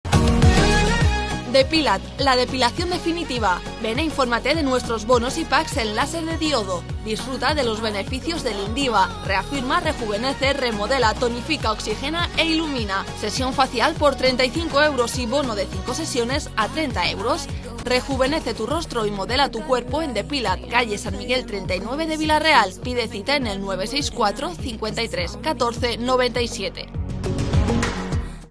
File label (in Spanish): anuncio depilat